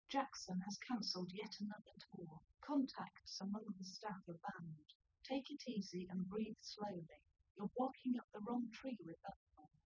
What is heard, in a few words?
female4 liverec; SiSEC08; SiSEC underdetermined